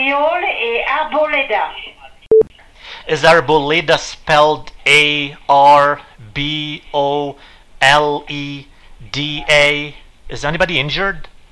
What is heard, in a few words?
911 Reporting an Accident French without interpretation